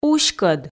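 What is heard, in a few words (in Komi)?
"уськӧд", Komi, female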